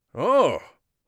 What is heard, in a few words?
surprised, Mage Voice; Mage Voice Pack